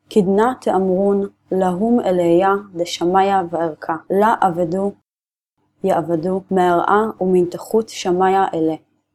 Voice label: Biblical Aramaic